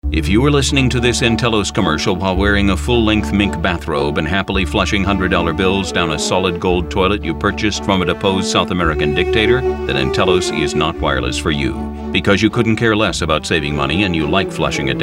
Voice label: radio; NTelos; Solid Gold Toilet